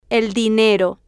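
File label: el Dinero, Spanish, female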